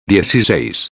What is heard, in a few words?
"dieciseis", espanhol